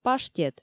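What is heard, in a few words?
"паштет", Komi, female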